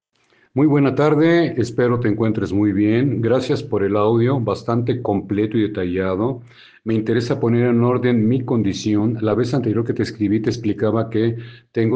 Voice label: whatsapp ptt